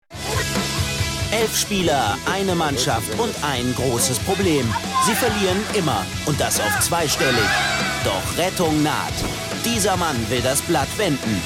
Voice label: dokusoap off; kika calli

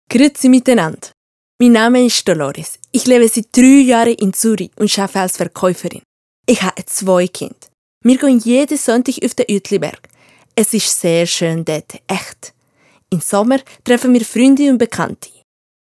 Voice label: ch deutsch neutral

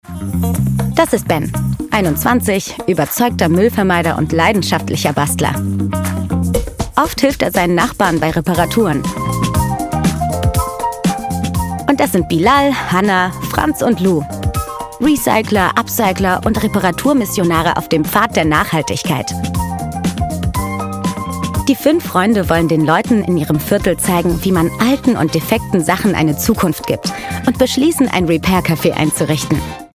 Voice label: imagefilm; solidaritaet; Muttersprache Deutsch, Russisch

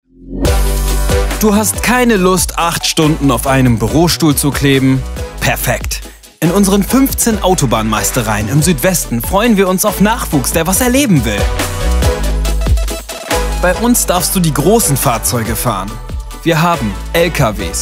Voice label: imagefilm; deutsche autobahn gmbh

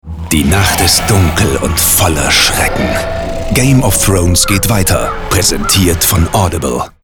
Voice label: Werbung; game of thrones

